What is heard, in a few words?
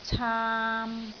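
"caam3", Cantonese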